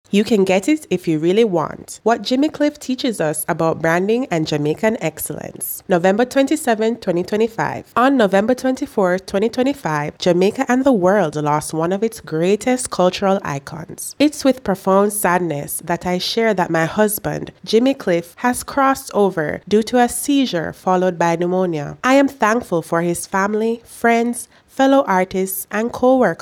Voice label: VOICEOVER; branding and jamaican excellence